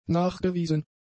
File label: "nachgewiesen", German; adjectives